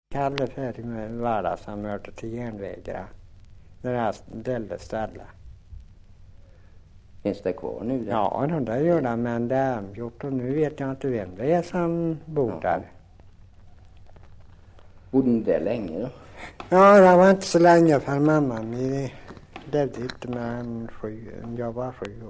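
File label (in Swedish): Grava Värmland, svenska sagor dialekter; Folkminnen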